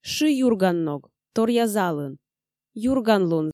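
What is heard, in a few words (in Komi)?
"шы юрганног торъя залын юрганлун", Komi, female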